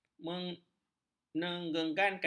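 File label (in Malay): "mengenggankan", Malay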